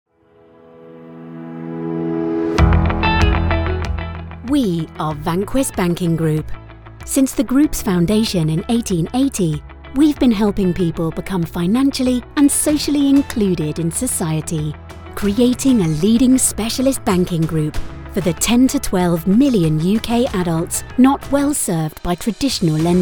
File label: english british corporate videos, british voice actors